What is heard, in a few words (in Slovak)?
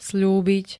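"sľúbiť", Slovak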